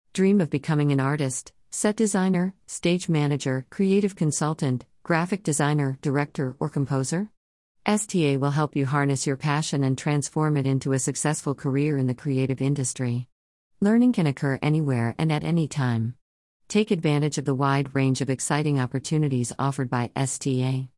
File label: Woman Talking Vo